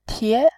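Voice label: "tie", Mandarin Chinese, second tone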